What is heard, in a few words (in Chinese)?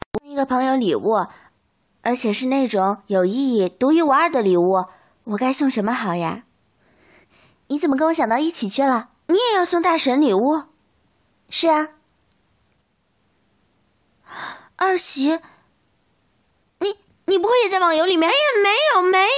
女; 毛晓彤+贝微微